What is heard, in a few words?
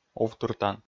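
"увтыртан", Komi, male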